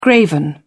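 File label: "graven image", British English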